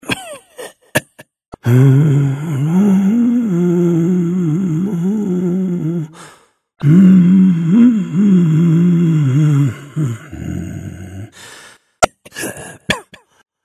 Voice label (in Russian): gravedigger rus; God of War Collection Volume 2